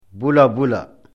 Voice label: "bula bula", lecture fl

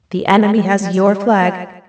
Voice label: enemy flag, female; teamplay

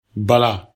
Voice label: "bala", lecture bm

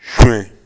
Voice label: "sunyɛ", Bambara

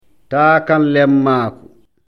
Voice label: "taakanlemmaaku", lecture fl

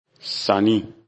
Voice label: "sanni", lecture bm; action

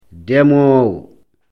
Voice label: "demoowo", lecture fl

